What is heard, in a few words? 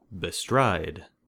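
"bestride", American English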